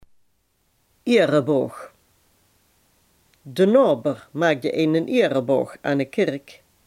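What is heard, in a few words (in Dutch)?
"ereboog" Limburgish dialect word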